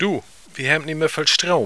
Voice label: hemmt nich mehr viel strom, plattdeutsch

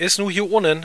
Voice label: is hier unnen, plattdeutsch

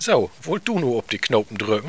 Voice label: knopen druecken, plattdeutsch

